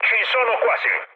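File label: Italian near waypoint mood med, speech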